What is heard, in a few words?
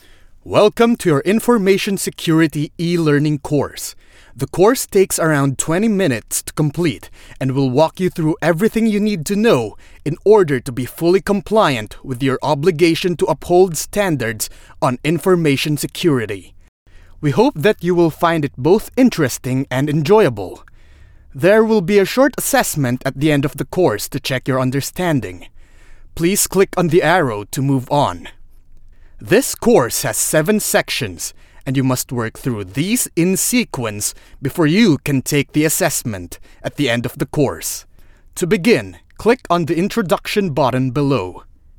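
PH English Narration, Philippine English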